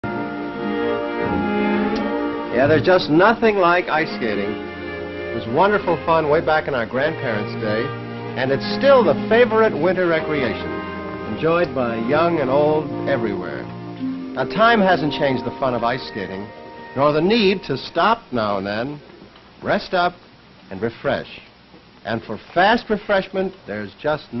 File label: Vintage Coca Cola commercial from 1955